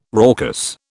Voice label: "raucous", British